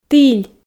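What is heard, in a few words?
"тіль", Komi, female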